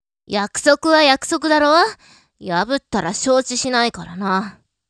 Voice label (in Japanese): syounen